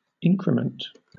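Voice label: "increment", British English; pronunciations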